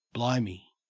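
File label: "blimey", English, Australian accent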